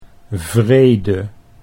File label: "wrede", Dutch